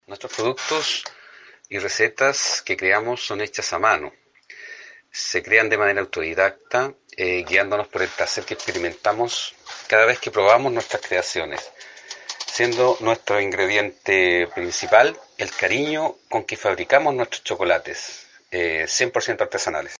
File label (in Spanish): Cuña